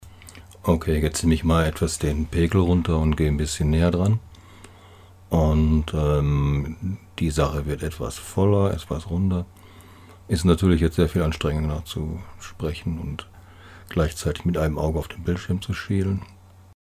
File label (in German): Nahaufnahme 15cm; ESI cosMik uCast